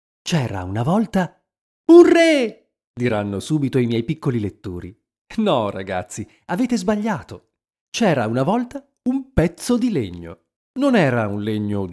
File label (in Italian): audiolibro; Voice over; speaker